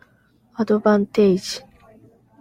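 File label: "advantage", Japanese, female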